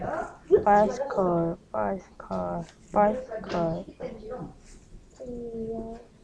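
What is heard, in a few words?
"bicycle", spoken word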